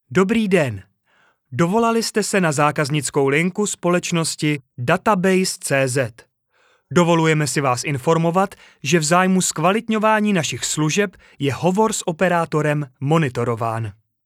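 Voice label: Czech, male; Hlásky pro IVR menu ve více jazycích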